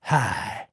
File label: trader male like greeting